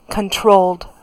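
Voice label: "controlled", US English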